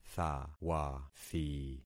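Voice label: "thawathi", concatenated syllables; Affixing experiment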